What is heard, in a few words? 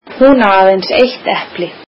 icelandic she only has one apple